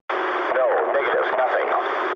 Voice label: eng chat, speech eng